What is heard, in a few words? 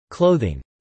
"clothing", English